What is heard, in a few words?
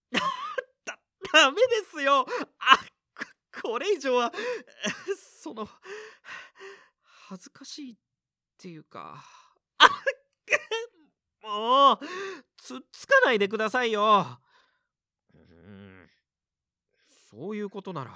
male; 若々しい